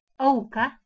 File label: "auka", Proto-Germanic